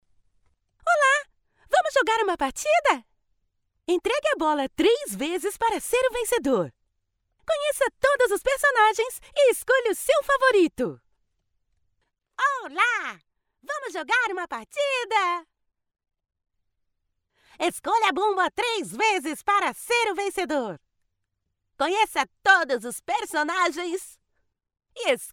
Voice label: portuguese brazilian video games, brazilian portuguese voice actors